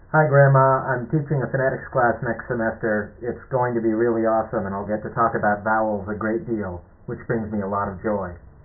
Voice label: hi grandma filtered